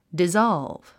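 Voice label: "dissolve", 英単語 発音; dizάlv ディザルブ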